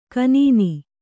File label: "ಖಿನ್ನ", Kannada